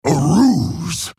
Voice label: male orc oops